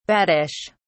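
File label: "baddish", British English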